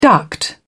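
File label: "duct", British English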